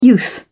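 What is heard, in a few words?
"youth", British English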